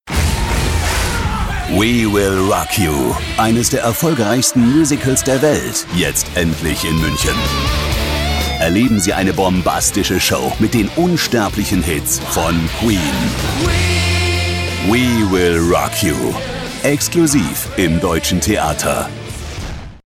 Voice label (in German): Radiowerbung